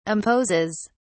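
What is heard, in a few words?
"imposes", British English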